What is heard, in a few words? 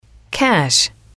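"cash", English, female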